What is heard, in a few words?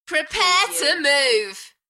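British female Prepare to move Enthusiastic; beanstalk audio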